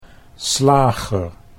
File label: "slager", Dutch; 'butcher'